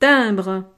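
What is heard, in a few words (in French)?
"timbre", French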